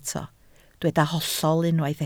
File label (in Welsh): "hollol", Welsh